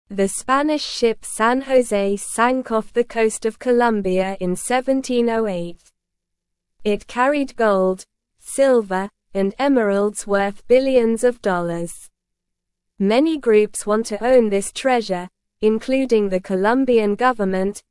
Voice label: English Newsroom Lower Intermediate SLOW Reading; The Big Ship Treasure Who Should Own It